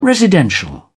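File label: "residential", British English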